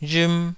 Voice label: "rhm2", taiwanese

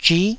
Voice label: "zhi9", Taiwanese syllable

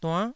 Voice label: "duann9", taiwanese syllable

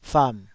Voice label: "fam3", Taiwanese

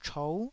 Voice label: "chou9", Taiwanese

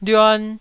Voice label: "lyuan1", Taiwanese syllable

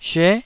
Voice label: "syue9", Taiwanese

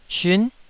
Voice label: "siun9", Taiwanese

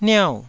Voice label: "niau4", taiwanese

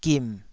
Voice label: "gim3", taiwanese

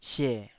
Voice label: "sie3", taiwanese Syllables

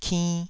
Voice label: "kinn2", taiwanese syllables

